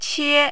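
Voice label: "che" tone 1, Mandarin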